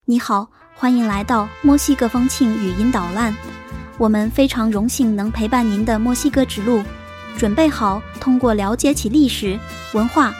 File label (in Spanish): Bienvenida chino